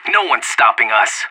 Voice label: on a roll brag, anarchist

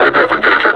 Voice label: announce attack, combine suppressor; Half Life Alyx

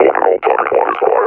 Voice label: announce kill player, combine officer; Half Life Alyx; Half Life Model Pack

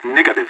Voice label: negative, vo agents; leet

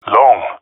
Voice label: "long", male; vo; agents; Source Model Packs; Counter Strike Model Pack; CS2; jungle male epic

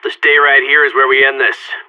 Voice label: radio lock n load; Source Model Packs; Counter Strike Model Pack; CS2; seal

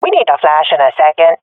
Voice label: request flash, swat fem; vo; agents; Source Model Packs; Counter Strike Model Pack; CS2

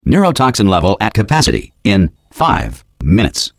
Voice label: neurotoxin, announcer; Portal 2

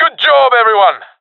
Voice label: round won, professional epic; vo; Counter Strike Model Pack; CS:GO

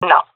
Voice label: negative, seal fem; vo; Counter Strike Model Pack; CS:GO

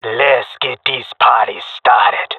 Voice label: round start, jungle male; agents

